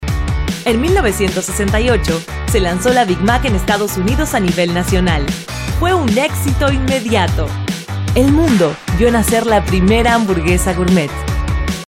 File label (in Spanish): Locutora Neutro; Demo Neutro